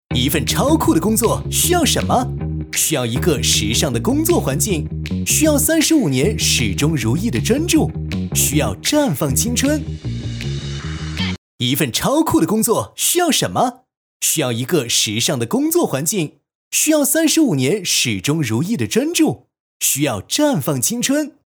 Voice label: 男 广告

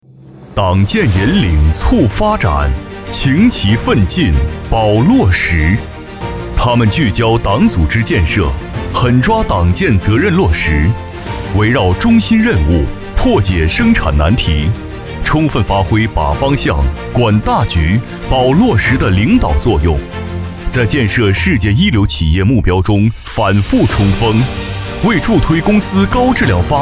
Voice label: 男 党建; 首钢京唐公司先进基层党组织

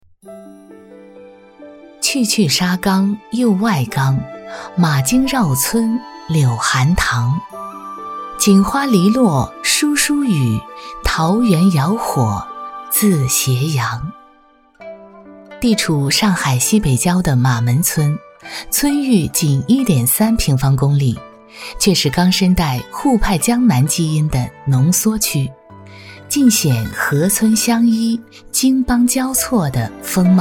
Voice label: 女 专题; 艺术赋能乡村振兴

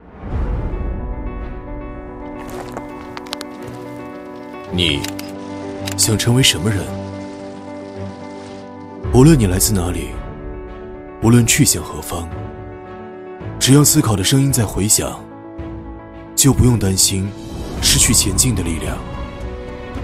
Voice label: 男 宣传片 质感

